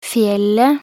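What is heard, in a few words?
"fjellet", Norwegian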